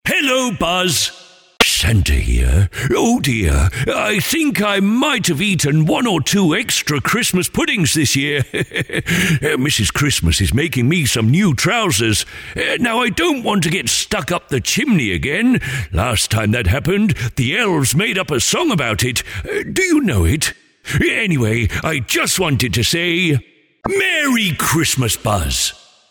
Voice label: Personalised Santa Message; Christmas message; Santa Radio